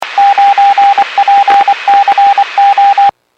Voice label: Skywarn 146.67; Stevens Point